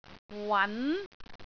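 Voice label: "wan2", Cantonese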